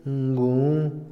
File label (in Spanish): cabaña, Otomí, hombre; INALI